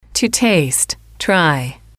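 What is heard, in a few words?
"to taste try", English, female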